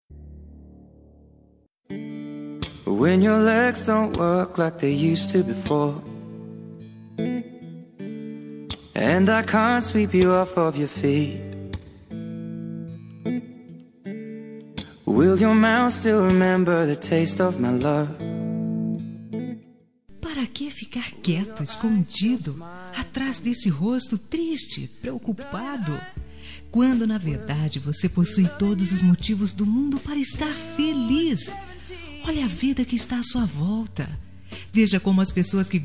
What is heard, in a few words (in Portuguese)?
otimismo fem rom, Telemensagem de Otimismo Romântica – Voz Feminina